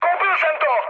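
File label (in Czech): Czech aircraft destroyed, mood medium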